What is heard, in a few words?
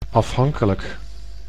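"afhankelijk", Dutch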